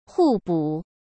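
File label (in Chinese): "互补", Mandarin Chinese; hsk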